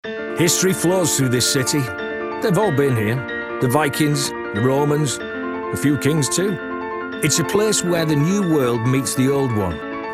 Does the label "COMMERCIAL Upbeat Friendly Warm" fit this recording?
Yes